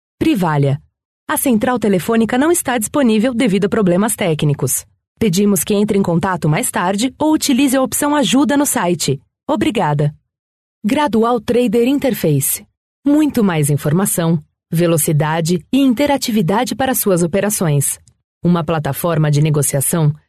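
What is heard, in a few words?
Brazilian Portuguese, female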